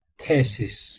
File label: "thesis" Ancient Greek